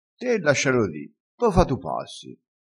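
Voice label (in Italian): "fa e su", vocabolario dialettale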